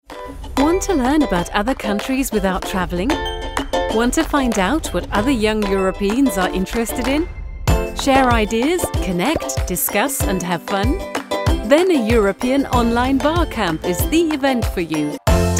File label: EN Eventfilm; Evangelische Akademie